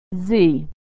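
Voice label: "z", American English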